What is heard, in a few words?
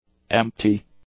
"empty", English; adjectives